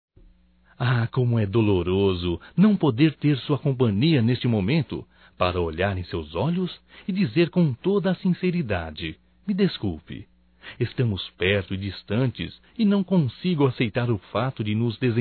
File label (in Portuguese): desculpas amantes, masc; Telemensagens 2017